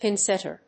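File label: "pinsetter", English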